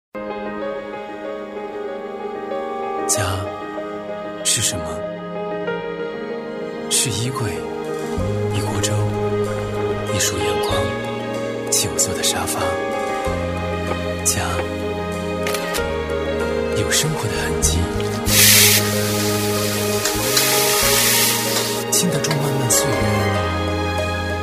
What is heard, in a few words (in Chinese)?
男 温情走心 温暖有感情, 时尚质感 质感磁性; 有棵树品牌片